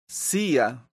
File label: "sia", esperanto; leciono1